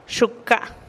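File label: "xuka", Teotitlán del Valle Zapotec; mi brazo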